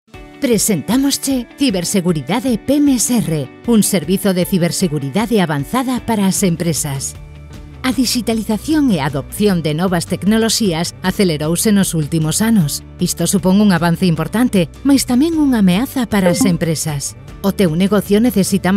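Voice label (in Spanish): otros España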